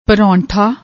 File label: "pronthaa", Punjabi